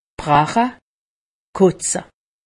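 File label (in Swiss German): "kotze", Alsatian; medecine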